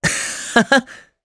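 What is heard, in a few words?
Vox Happy Japanese; Evan; kingsraid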